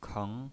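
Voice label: "keng", second tone, pinyin voice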